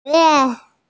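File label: "lun2chuan2" Mandarin Chinese, female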